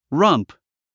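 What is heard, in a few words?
"rump", English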